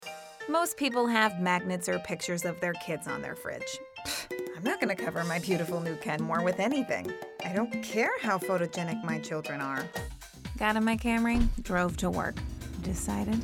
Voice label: commercial

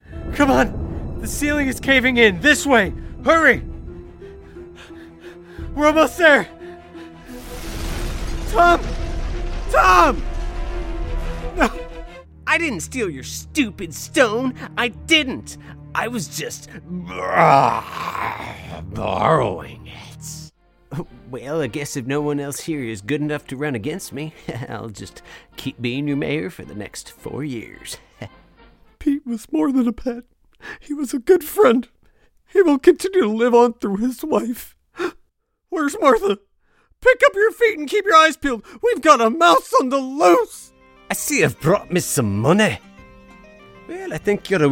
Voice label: Animation

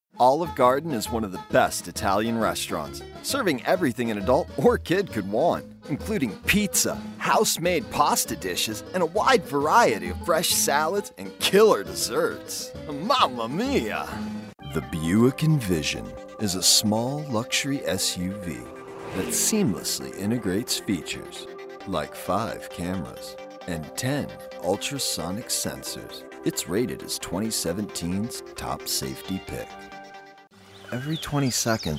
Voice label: commercial

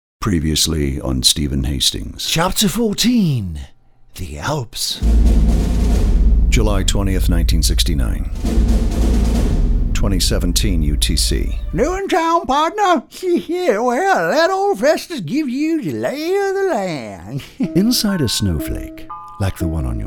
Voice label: Narration; promo

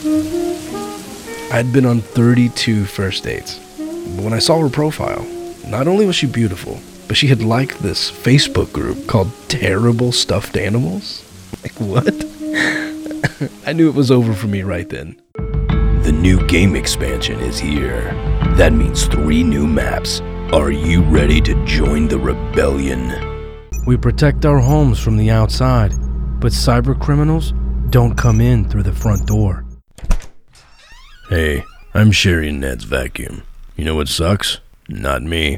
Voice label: Commercial

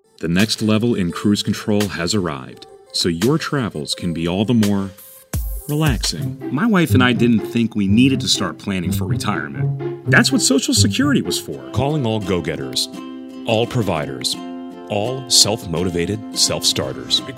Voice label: Commercial

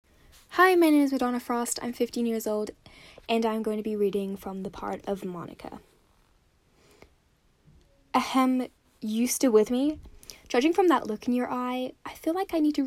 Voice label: Youth, vo

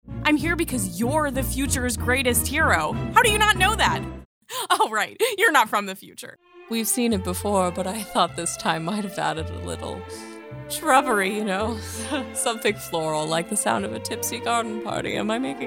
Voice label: Animation

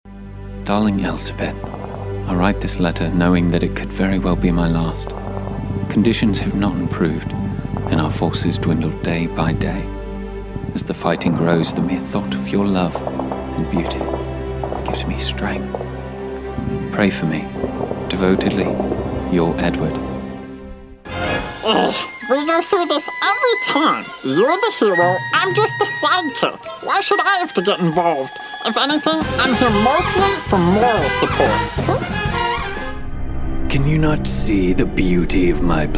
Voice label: Animation

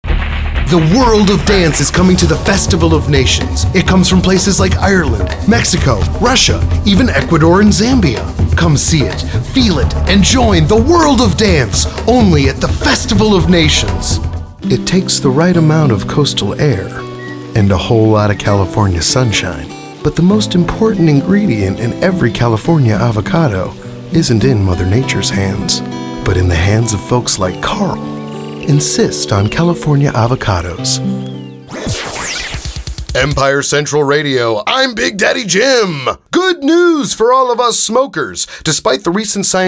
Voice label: commercial

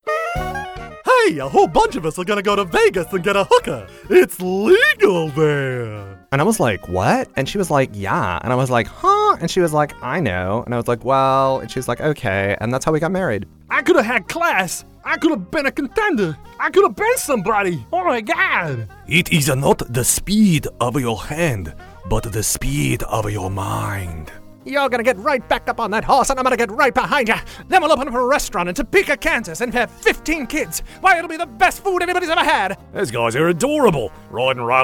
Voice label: animation

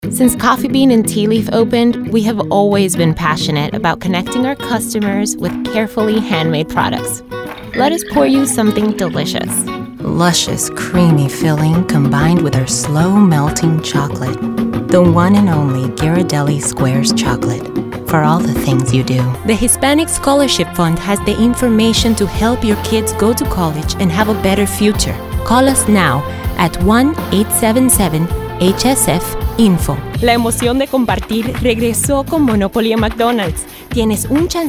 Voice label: commercial

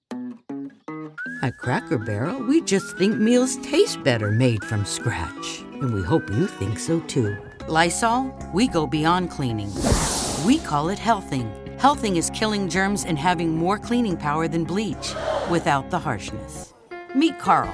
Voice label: commercial

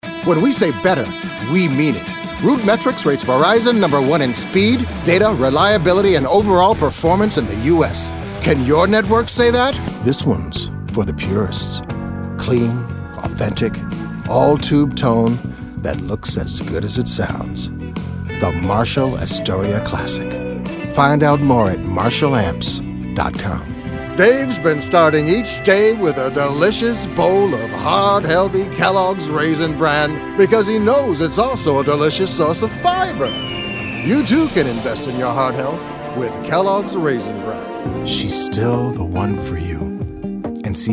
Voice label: commercial

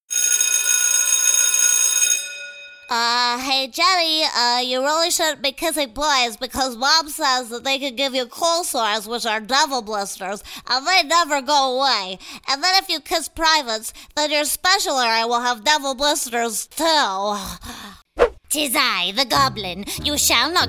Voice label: Animation

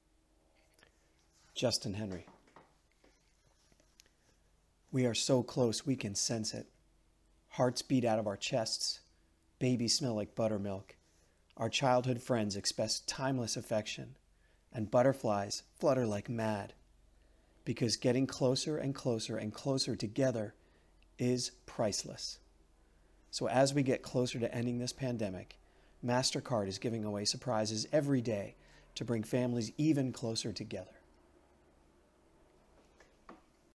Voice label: Commercial

